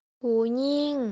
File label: "ຜູ້ຍິງ", female, Lao